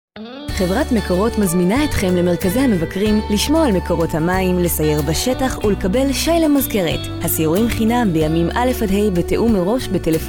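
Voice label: Hebrew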